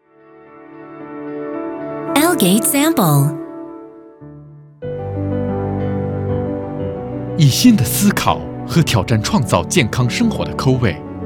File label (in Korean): 중국 남 기본톤